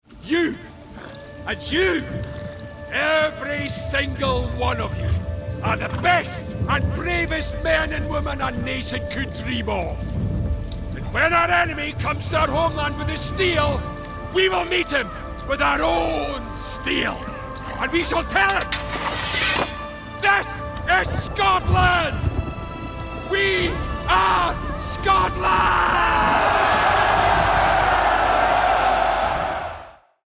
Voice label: gaming, Scottish voice; our homeland